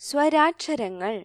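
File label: vowels, Malayalam alphabet; gcompris-data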